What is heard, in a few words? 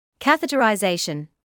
"catheterisation", English